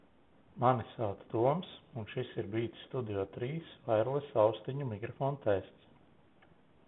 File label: beats3 wireless mic